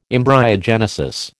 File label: "embryogenesis", American